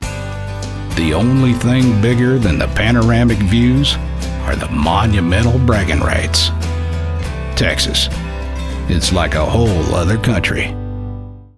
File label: Texas